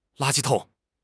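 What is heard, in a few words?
"la1 ji1 tong3", Chinese; HSK4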